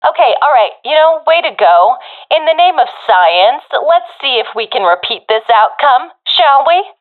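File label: round won, professional female; vo; Counter Strike Model Pack; CS:GO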